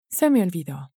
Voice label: se me olvido, Spanish

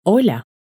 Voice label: "hola", Spanish

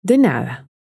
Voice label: "de nada", Spanish

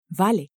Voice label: "vale", Spanish

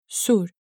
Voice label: "sur", Spanish

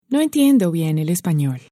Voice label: no entiendo bien el espanol, Spanish

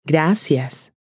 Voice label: "gracias", Spanish

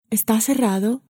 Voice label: esta cerrado, Spanish

